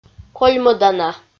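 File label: "кольмӧдана", Komi, female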